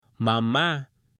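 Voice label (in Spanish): "mama", Spanish, female; AppLenguaje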